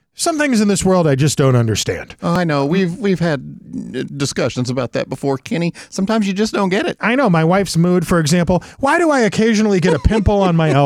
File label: RADIO AD; nicks plumbing radio ads